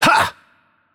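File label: Attack Heavy, German; de DE; VO; bloom minigame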